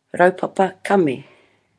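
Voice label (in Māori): "raupapa kame", Māori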